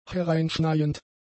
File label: "hereinschneiend", German; adjectives